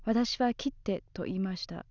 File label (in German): "kitte" schneide, japanese accent